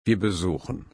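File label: "besuchen", German, slow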